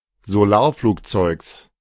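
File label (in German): "Solarflugzeugs", German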